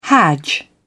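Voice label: "hadj", British English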